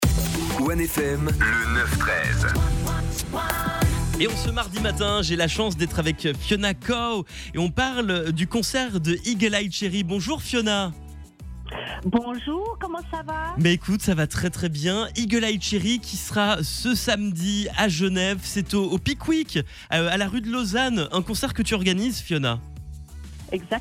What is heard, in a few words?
Interview